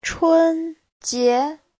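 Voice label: "chūnjié", Mandarin Chinese; 春节 : Tết ta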